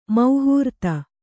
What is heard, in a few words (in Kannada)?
"ಮಹಾರಥ", Kannada